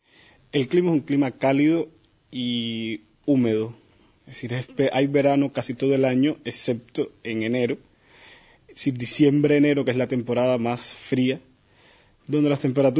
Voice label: Cuba, dialekt